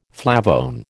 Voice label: "flavone", American